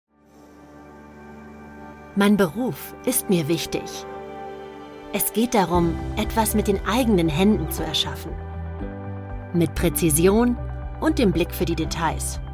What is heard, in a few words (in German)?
Imagefilm Sprecherin; Handwerkerstolz